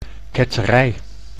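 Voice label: "ketterij", Dutch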